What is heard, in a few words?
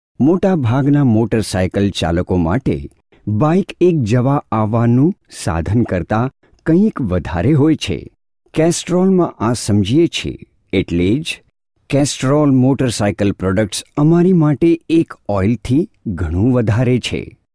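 Gujarati narration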